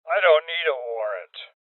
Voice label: Voices OpenSafe Male Old; rebel cops 2019 gamerip